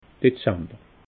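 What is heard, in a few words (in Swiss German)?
"dezamber", Alsatian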